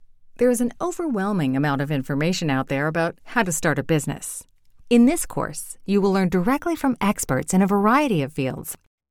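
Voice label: Voice Over Artist Instructional corporate elearning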